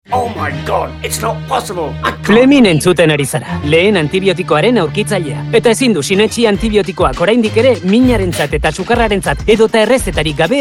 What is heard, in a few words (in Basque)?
CUÑA Euskera; cuña de radio; Usar bien los antibióticos